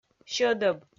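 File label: "сьӧдӧб", Komi, female; kpv audio dict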